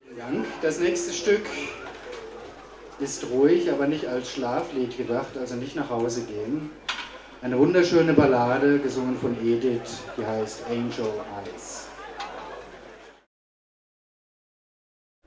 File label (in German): Ansage